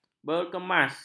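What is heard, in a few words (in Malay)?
"berkemas", Malay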